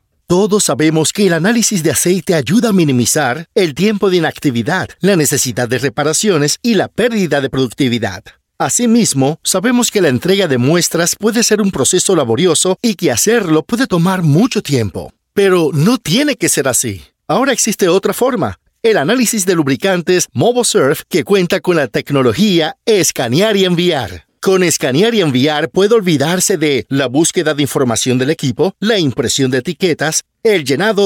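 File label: es-lat m informative, Latin American Spanish male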